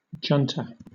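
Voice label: "junta", British English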